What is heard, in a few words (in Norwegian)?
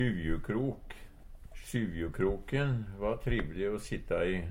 sjyvjukrok, Numedalsmål; Substantiv hankjønn; Sjyvjukroken va trivle o sitta i.